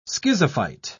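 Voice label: "schizophyte", British English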